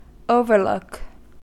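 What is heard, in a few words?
"overlook", US English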